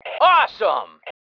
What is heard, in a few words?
AWESOME, MALE